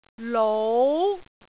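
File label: "lou2", Cantonese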